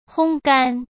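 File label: "烘干", Mandarin Chinese; hsk; chinese-flashcards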